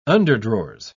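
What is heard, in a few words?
"underdrawers", British English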